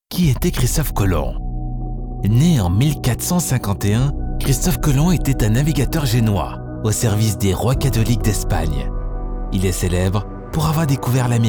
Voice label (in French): audiobook